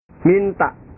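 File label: "minta", Indonesian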